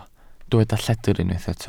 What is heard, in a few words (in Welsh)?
"lledr", Welsh